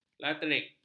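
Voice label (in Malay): "laterit", Malay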